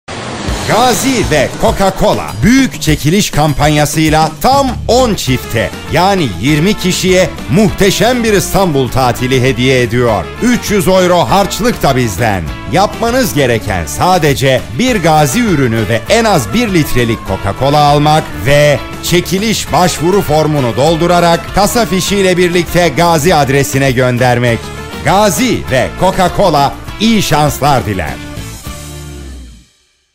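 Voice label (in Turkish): reklam, Turkish; gazi cocacola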